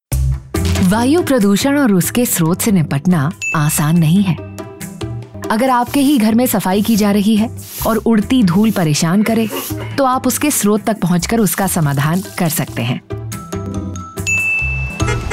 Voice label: explainer

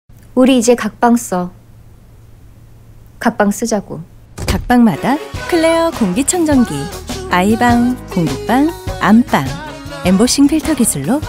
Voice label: Commercial

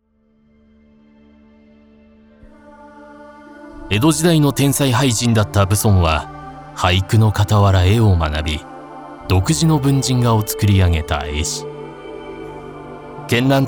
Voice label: Documentary

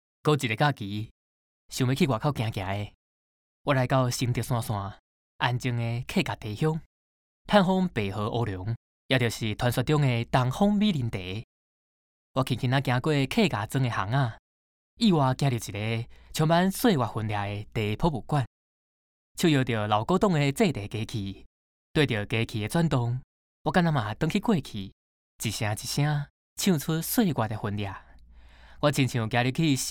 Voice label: Narration

